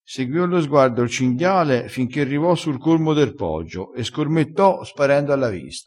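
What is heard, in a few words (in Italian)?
"scormetta", vocabolario dialettale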